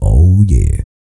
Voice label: E SPEECH, Vocal Planet R&B Dance